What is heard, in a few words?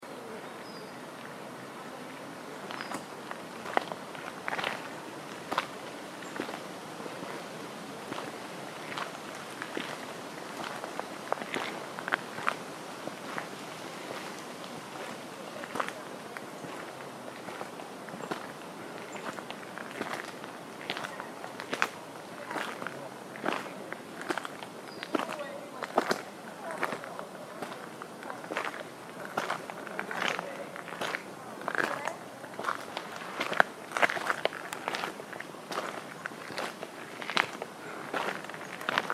Distant Voices in the Woods